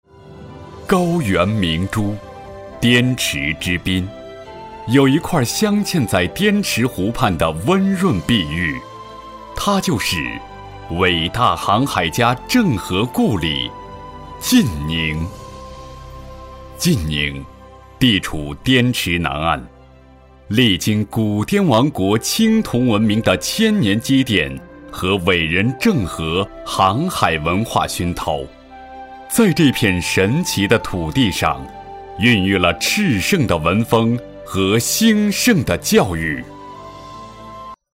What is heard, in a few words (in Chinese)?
男 专题